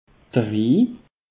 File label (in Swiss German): "rhi", alsace; peche